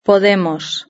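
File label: "podemos", Spanish; verb-conjugator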